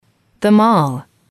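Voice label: "mall", English, female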